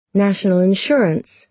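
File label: "national insurance", American English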